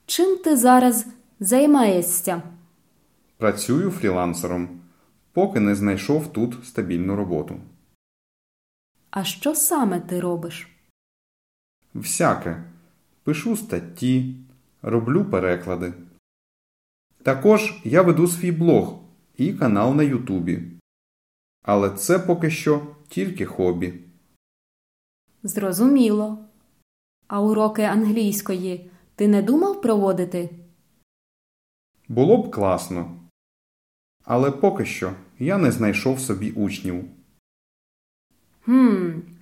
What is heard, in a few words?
Dialogue Slow